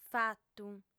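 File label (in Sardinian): "fatu", female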